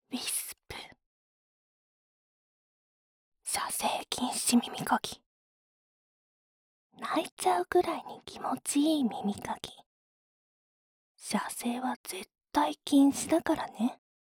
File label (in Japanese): タイトルコール